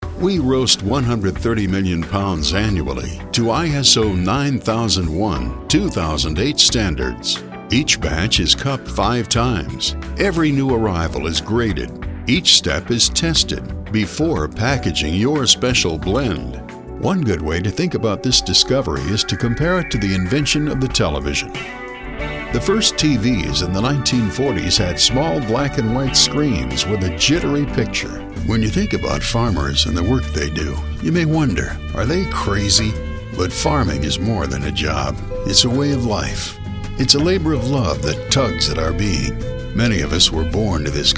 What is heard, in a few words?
Narration